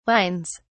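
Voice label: "wines", British English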